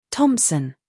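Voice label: "scandal", American; thompson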